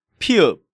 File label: "Pieup", Korean